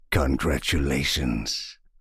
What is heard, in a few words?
voice evil congratulations; Sheriff Of Nottingham